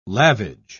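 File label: "lavage", British English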